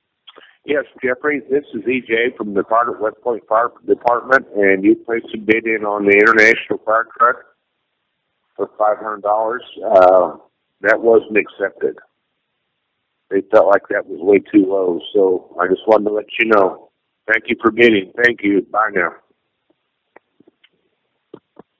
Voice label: Voicemail; FireTruckBidRejected